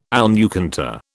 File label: "almucantar", British